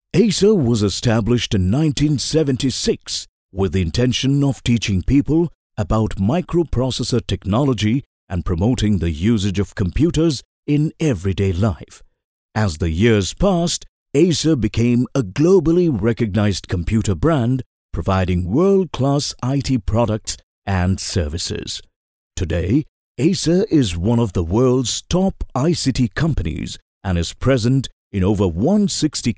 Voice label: ENGLISH Corporate Film; ACER